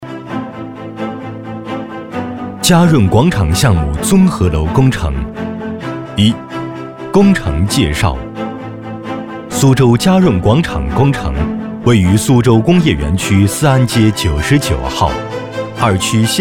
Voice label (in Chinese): 工程介绍 舒缓; 广场项目